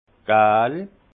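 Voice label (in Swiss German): "gal", Alsace Haut Rhin; jaune; vin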